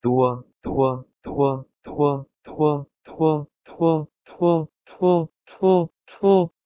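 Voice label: Proto-Indo-European tua to Persian tu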